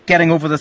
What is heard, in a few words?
geDing over the, Scots